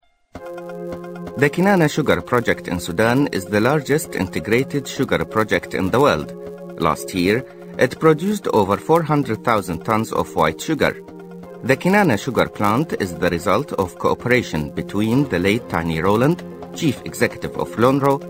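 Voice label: eng arab male voice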